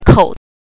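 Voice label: "kou" neutral tone, pinyin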